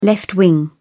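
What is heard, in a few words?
"left winger", British English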